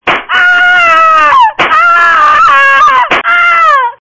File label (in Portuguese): Mulher Apanhando